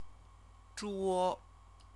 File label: "zhuo", Chinese; CTCFL; University of Oxford